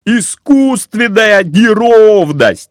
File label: warning pol, Navitel; MioMap C220